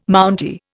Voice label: "Mountie", Indian